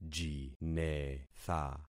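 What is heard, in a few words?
"jinetha", concatenated syllables; Affixing experiment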